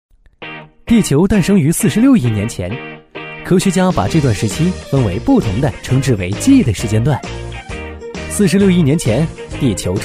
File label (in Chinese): 男 MG动画 品质讲解; 雄安新区